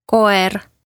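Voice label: "koer", Estonian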